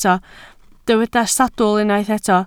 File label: "sawdl", Welsh